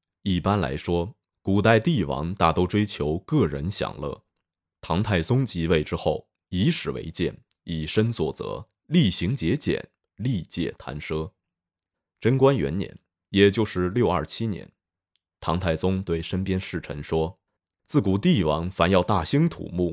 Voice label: 党政宣传

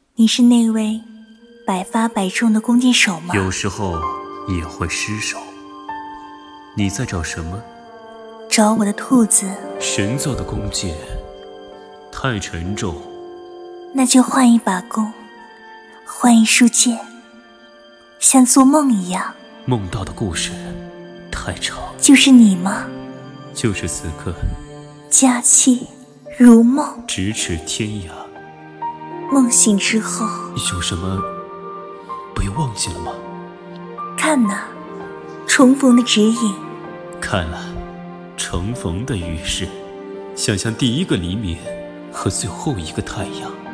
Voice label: 游戏配音; 灵素

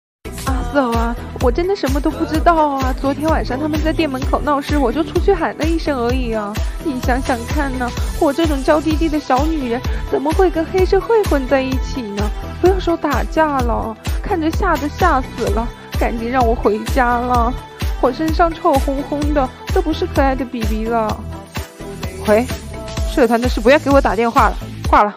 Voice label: 港风御姐音温柔撒娇

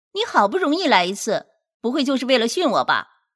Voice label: 少御音

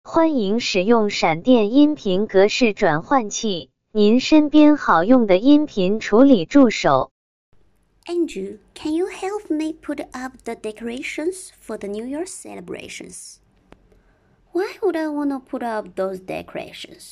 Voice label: 英文

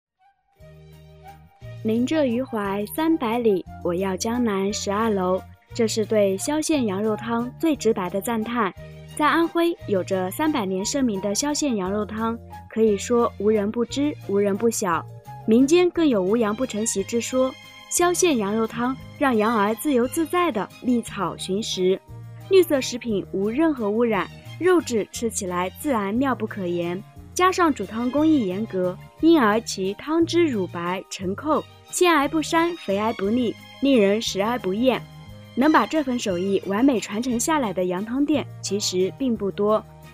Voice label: 品牌宣传音频小样

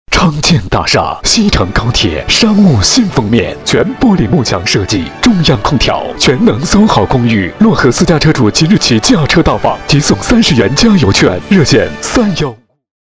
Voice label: 电台广告; 电台广告昌建大厦

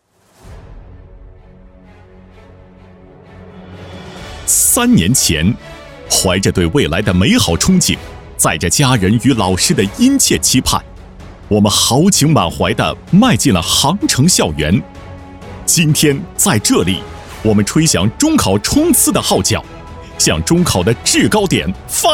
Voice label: 宣传片大气誓师视频

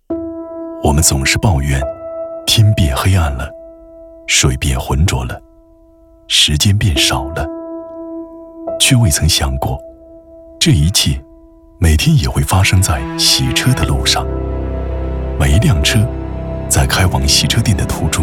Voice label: 走心宣传类环境保护走心情感

